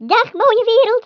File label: "Byebye", Dutch; Speech; International; 11khz